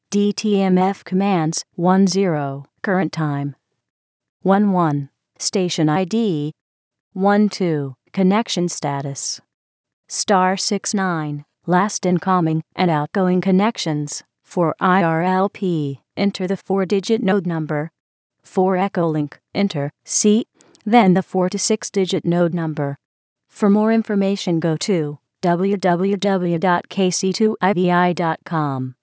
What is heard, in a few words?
irlp info